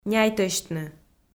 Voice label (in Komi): "няйтӧсьтны", Komi